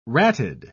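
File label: "ratted", British English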